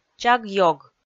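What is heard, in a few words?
"чаг-ёг", Komi, female; kpv audio dict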